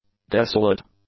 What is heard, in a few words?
"desolate", english; adjectives